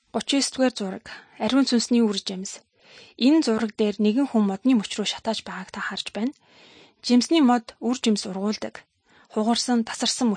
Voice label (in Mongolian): good news mongolian